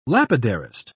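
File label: "lapidarist", British English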